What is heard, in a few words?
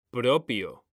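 "propio", Spanish, male; mekanta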